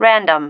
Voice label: "random", female